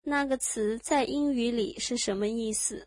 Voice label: What does that word mean in English, mandarin